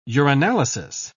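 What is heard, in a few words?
"urinalysis", British English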